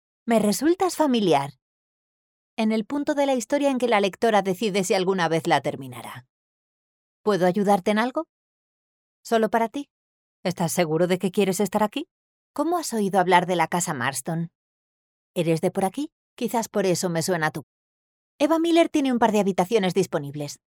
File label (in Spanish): locutora spanish voiceover